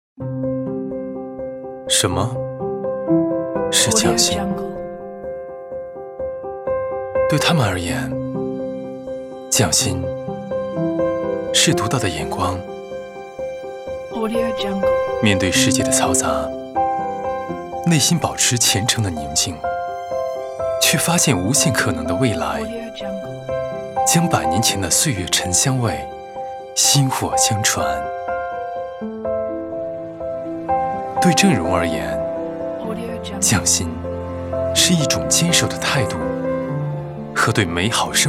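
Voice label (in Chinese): 男【宣传旁白】; 什么，是匠心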